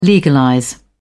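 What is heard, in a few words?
"legalize", British English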